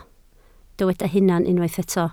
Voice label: "hunan", Welsh